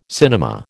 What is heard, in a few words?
"cinema", American